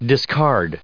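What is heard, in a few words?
"discard", English; verb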